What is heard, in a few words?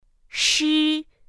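"shi", Mandarin Chinese pinyin; pinyin2; pinyinji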